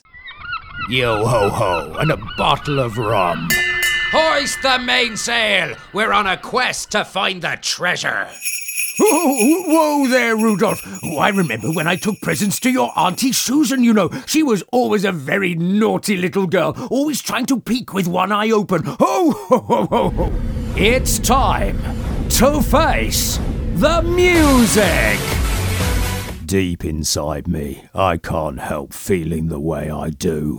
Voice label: Character voicereel